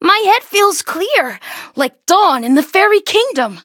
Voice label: level up, en; Cookie Run

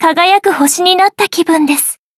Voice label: upgrade, ja; cookierunwiki

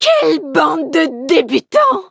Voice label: victory, fr; Cookie Run

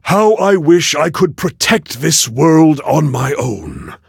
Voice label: levelup, en; Cookie Run

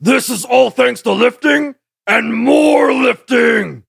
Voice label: level up, en; cookie run

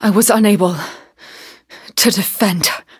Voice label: defeat, en; cookie run

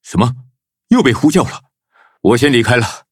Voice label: levelup zh-hant; cookierunwiki

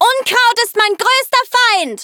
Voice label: loading, de; Cookie Run

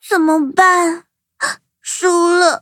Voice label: defeat, zh-hant; cookierun

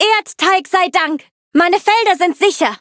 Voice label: victory, de; cookie run

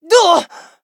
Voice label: hit, en; Cookie Run

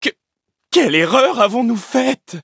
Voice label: defeat, fr; Cookie Run

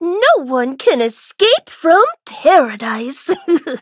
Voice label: touch, en; cookie run

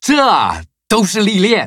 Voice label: level up, zh-hant

